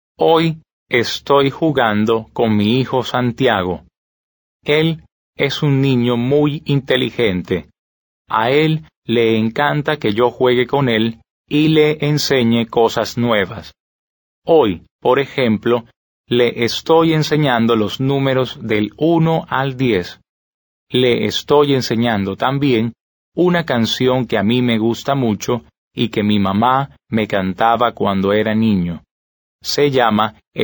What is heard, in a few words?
Dialogue, Spanish